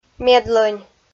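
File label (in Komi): "медлӧнь", Komi-Zyrian, female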